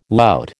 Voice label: "lout", American

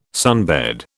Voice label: "sunbed", British

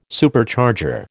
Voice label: "Supercharger", American

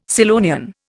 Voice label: "Scillonian", Indian

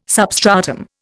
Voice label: "substratum", Indian

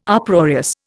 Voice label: "Uproarious", Indian

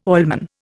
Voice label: "oilman", Indian

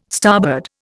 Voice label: "starboard", Indian

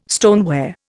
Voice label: "stoneware", Indian

